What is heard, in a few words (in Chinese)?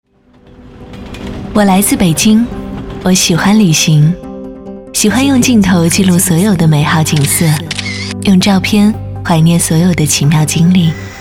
宣传片 旅游 时尚; 我来自北京